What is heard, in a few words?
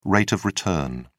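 "rate of return", British English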